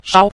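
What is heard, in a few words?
"shao", Mandarin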